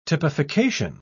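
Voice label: "typification", British English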